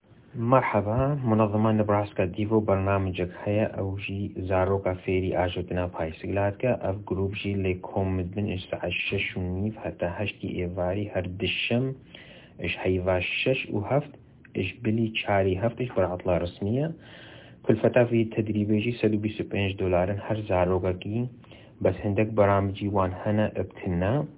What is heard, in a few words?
Kurdish